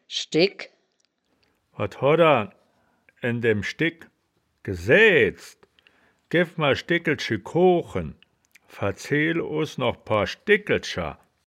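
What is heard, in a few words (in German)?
"schdegk", wittlicher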